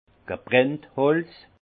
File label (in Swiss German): "gebranntholz", Alsace; vin; bois brulé